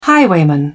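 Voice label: "highwayman", British English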